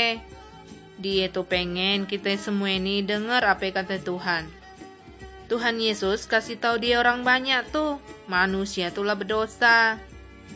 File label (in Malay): kabar baik good news malay bangka